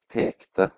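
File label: "pekte", Proto-Indo-European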